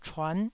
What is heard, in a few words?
"chuan9", Taiwanese